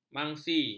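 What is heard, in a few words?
"mangsi", Malay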